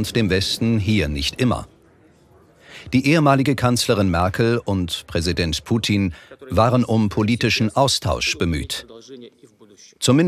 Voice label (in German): Doku Kommentar Ostsee